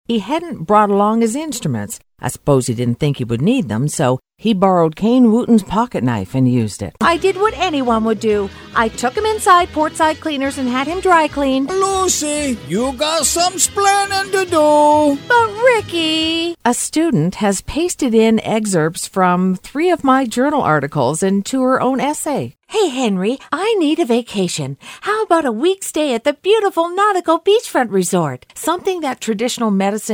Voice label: CHARACTER